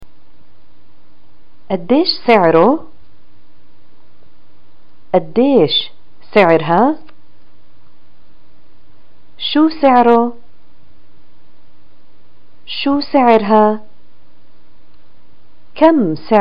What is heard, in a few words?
shopping how much, arabic